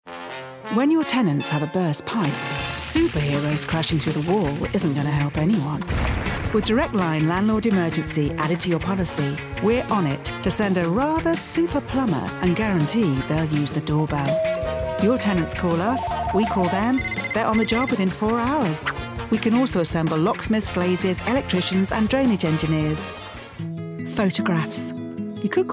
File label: Commercials Montage; Actress